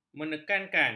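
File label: "menekankan", Malay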